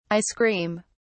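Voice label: "icecream", British English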